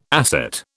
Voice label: "asset", British